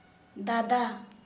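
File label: brother, Santali, female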